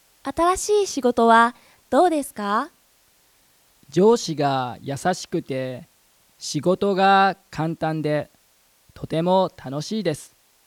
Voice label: Conversation